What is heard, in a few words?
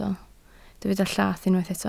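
"llath" Welsh, spoken word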